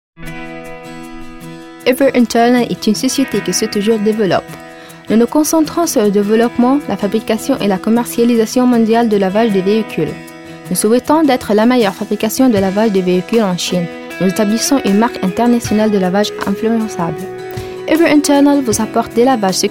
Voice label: french girl